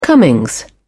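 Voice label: "comings", British English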